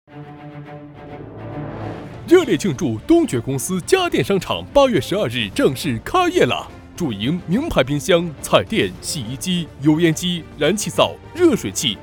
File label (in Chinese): 男 促销; 东珏公司